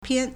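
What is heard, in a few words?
"pian4", Mandarin Chinese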